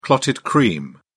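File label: "clotted cream", British English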